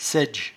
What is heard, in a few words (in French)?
"saidge", patois jura